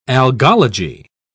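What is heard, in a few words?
"algology", British English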